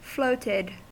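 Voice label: "floated", US English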